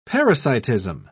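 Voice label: "parasitism", British English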